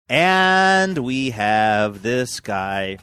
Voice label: saying and we have this guy drawn out singsongy